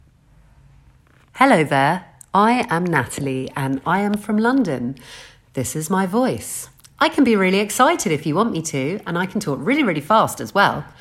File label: Voice Over